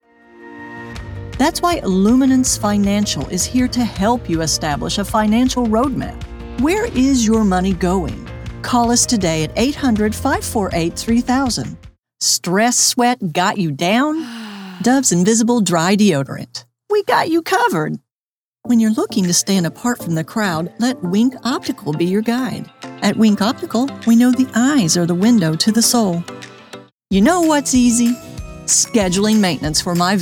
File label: Commercial